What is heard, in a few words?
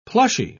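"plushy", British English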